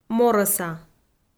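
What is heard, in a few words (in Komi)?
"морӧса", Komi, female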